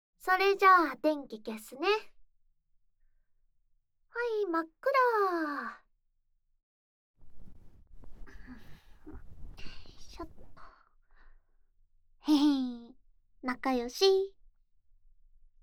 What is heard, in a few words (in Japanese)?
おやすみぃー, ASMR